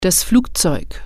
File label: "airplane", German